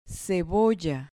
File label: "cebolla", Spanish